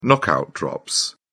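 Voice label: "knockout drops", English, British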